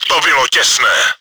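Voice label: damage by near explosion by player, чешской озвучки; To bylo těsné.; Czech; Это было совсем рядом.; World of Tanks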